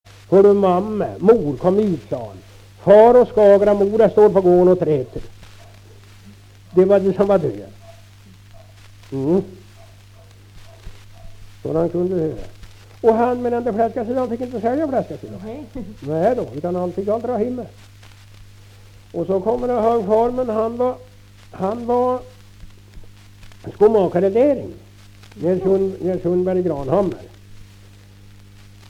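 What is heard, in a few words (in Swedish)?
Kräklinge Närke, dialekter, Svenska sagor; Folkminnen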